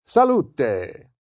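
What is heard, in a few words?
Cheers Italian M, male, Italian 'Salute' (cheers)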